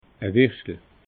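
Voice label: "wirschtel", Alsatian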